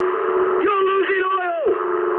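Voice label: damaged oil mood high, speech English; War Thunder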